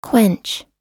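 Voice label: "quench", American English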